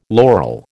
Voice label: "laurel", American

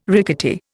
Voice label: "rickety", Indian

